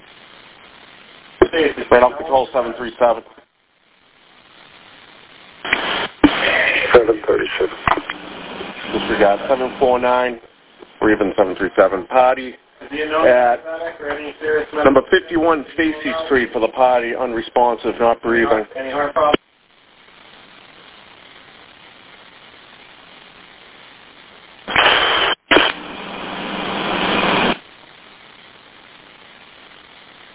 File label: Police Radio